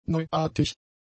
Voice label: "neuartig", German; adjectives